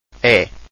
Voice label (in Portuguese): "e" alfabeto